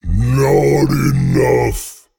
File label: Male Abyssal Attack